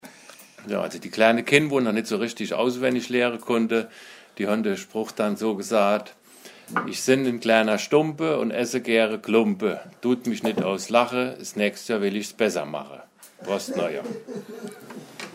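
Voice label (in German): ich sinn en klaener stumpe, O-Ton Hunsrück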